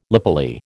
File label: "lapilli", American